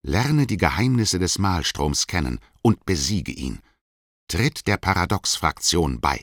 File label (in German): German; Tut faction recruiting; aronwk collection; ndaudio